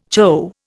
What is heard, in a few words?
"chough", Indian